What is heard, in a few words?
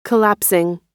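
"collapsing", American English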